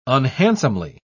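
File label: "unhandsomely", British English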